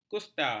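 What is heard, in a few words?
"kusta", Malay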